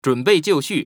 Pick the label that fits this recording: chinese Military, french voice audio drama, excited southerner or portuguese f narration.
chinese Military